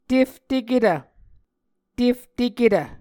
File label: "tefteketv", Muscogee